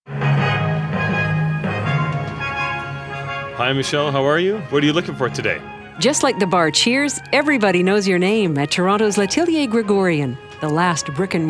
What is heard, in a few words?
FM; Classical Store Closing; canadas last remaining classical music store closing